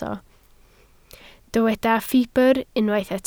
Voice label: "ffibr", Welsh